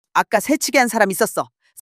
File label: anger